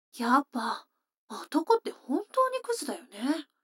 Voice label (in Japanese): 女の子台詞